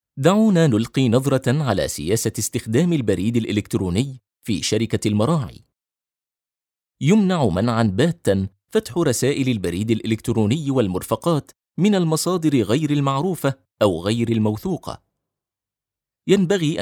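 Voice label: Arabic, male, Elearning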